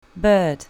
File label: "bird", English; pet animals; colour your english